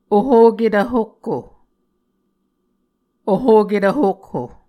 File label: "ohoketv hokho", Muscogee